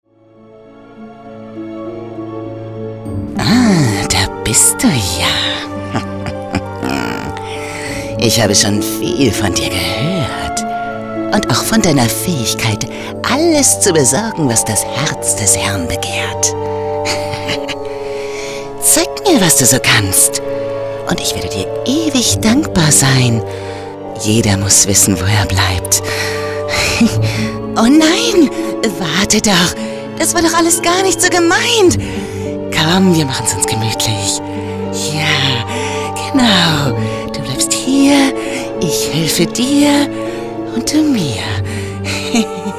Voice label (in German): Fiese Hexe; Game